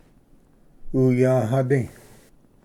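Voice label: "uyvhadi", Cherokee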